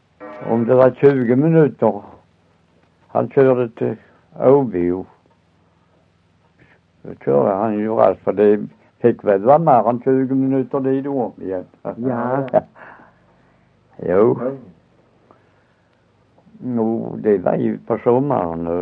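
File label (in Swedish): Össjö Skåne, dialekter, svenska sagor; Folkminnen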